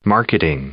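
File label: "marketing", English, male; noun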